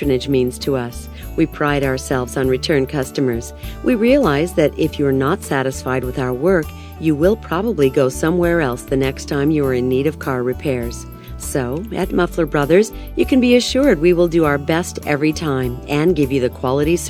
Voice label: auto care hold messages